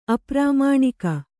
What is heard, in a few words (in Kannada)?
"ಅಪ್ರಾಮಾಣಿಕ", Kannada